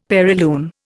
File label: "perilune", Indian; Dictionary